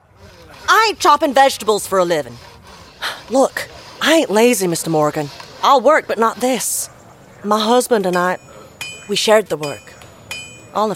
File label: Southern American; RDR2